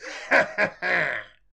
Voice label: hit, voices angry pursuit; DanisRace